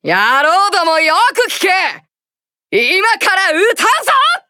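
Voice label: start, Japanese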